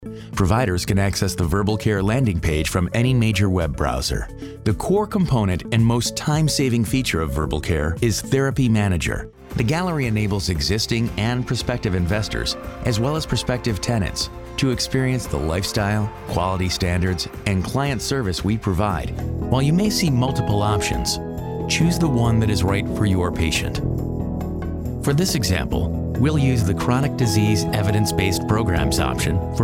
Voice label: E LEARNING INSTRUCTIONAL